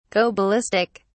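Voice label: "go ballistic", British English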